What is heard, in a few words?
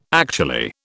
"actually", British